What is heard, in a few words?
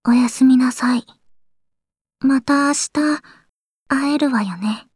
chitchat, voice commands; svencoop; Blanc